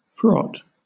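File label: "fraught", British English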